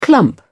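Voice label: "clump", English, British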